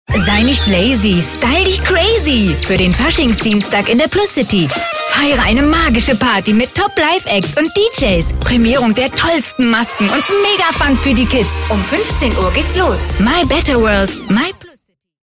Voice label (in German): spot fasching; pluscity 2024